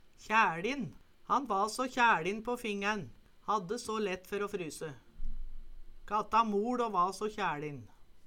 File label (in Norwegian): kjaeLin, Numedalsmål